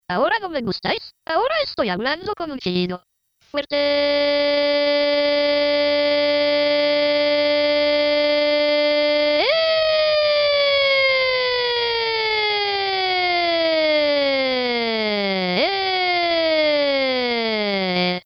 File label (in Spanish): chillido; O jaws também canta!; Español